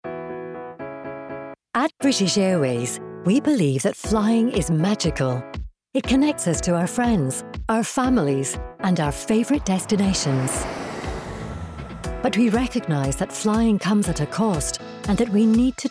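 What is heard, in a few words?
English Scottish, female, Corporate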